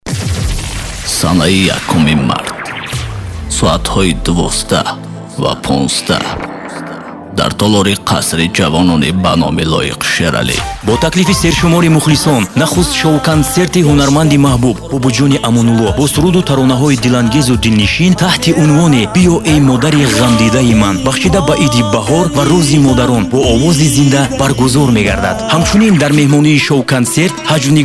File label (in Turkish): tacikce seslendirme erkek sesi